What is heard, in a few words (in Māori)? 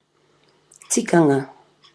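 "tikanga", Māori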